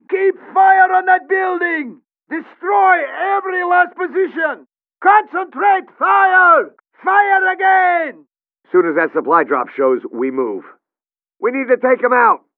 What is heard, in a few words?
talkie box